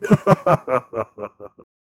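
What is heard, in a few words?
a deep guttural male voice; evil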